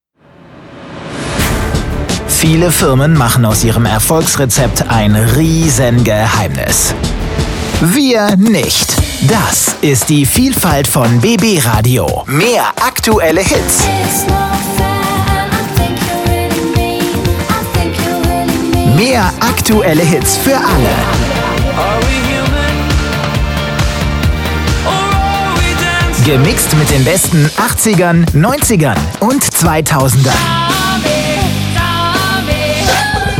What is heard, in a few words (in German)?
Hookpromo; BB RADIO